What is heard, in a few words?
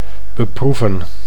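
"beproeven", Dutch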